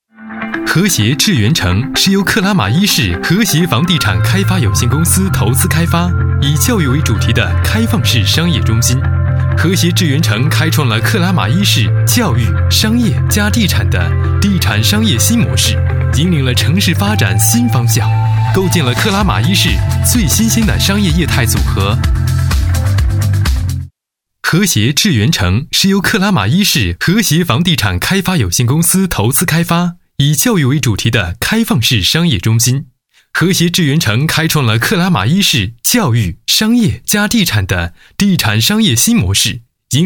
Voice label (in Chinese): 男 房地产 宣传配音; 和谐智源城